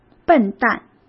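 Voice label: "笨蛋", Mandarin Chinese; hsk; chinese-flashcards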